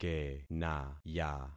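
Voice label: "kenaya", concatenated syllables; Affixing experiment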